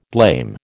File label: "blame", American